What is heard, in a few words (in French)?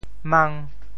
"mang", syllabes